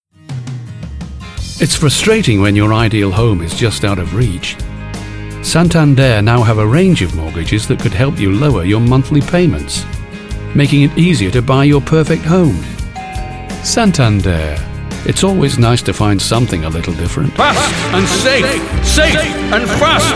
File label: commercials, regional accents; 20s-30s. Male. Studio. Yorkshire.